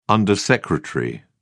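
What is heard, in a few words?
"undersecretary", English, British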